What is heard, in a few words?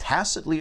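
"tacitly", US English; pronunciation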